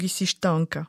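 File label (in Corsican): "stanca chsì stanca", Moita Verde (nord); puisque tu es fatiguée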